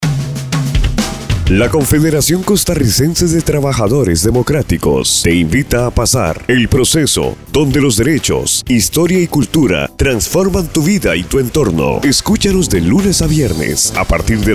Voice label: promo